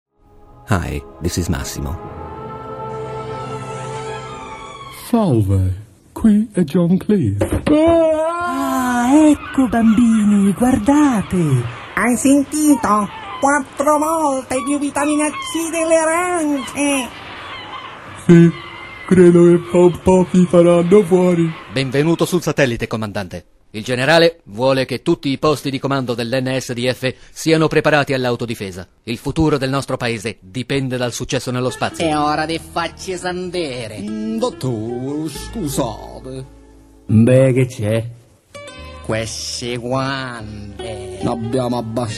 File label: italian character